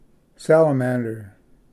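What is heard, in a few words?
"salamander", Pronunciation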